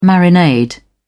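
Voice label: "marinade", English, British English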